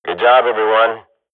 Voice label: radio bot end clean, swat; Counter Strike Model Pack; CS:GO